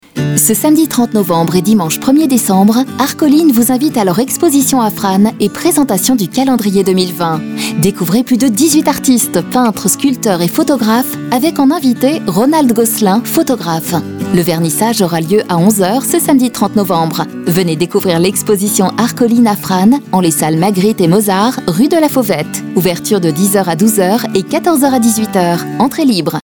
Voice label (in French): Voix Femme, voix off